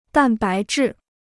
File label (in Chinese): "蛋白质", Chinese